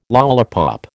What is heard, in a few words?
"lollipop", American